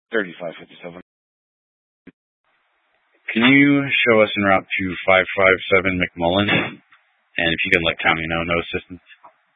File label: Police 1 Dispatch